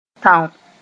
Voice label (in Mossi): "tão", moore; to shoot (gun